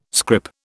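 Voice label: "scrip", British English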